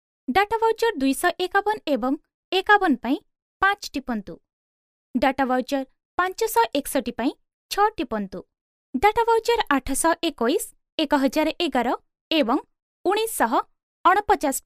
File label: Oriya female